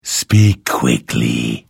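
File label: Hello, Vo; Morrowind GOTY GOG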